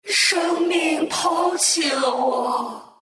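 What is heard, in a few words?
death, Chinese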